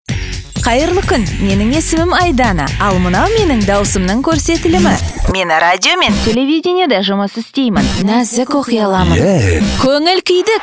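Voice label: kazakh female commercial; voice demos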